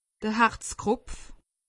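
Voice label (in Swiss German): "harzkropf", Alsatian; medecine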